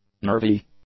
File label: "nervy", english; adjectives